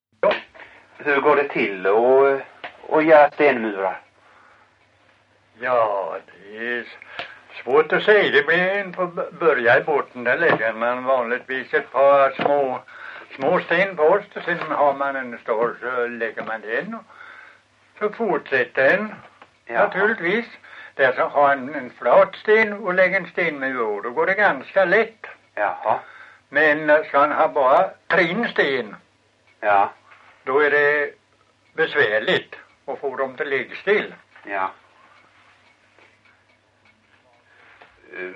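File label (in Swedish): Långlöt Småland, dialekter; Folkminnen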